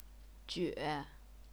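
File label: "jue", Chinese, third tone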